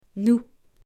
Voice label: "nous", French, female; personal pronouns pronoms personnels

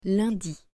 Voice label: "lundi" French

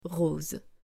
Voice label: "Rose", French

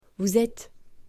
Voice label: "VOUS ETES" FEMALE; to be verbe etre

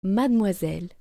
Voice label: "Mademoiselle", French

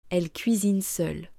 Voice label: "Elle cuisine seule", French

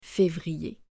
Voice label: "Fevrier", French

